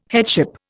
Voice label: "headship", Indian